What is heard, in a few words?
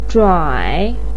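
"zhuai3", Mandarin Chinese, pinyin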